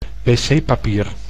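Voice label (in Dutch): "WC-papier", Dutch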